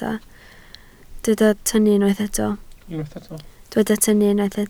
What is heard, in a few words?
"tynnu", Welsh